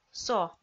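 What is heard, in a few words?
"со", Komi, female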